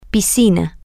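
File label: swimming pool, Spanish, female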